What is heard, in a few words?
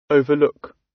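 "overlook", British; Life, leisure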